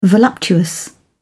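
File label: "voluptuous", British English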